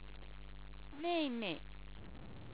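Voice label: "mei4mei", Chinese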